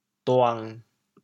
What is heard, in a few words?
"duang7", chaoyang